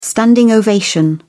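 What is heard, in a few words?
"standing ovation", British English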